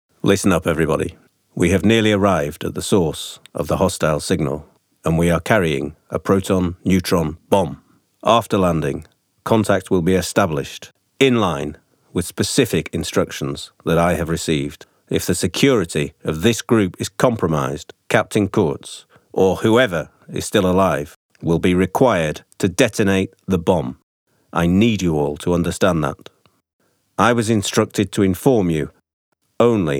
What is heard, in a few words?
movie, US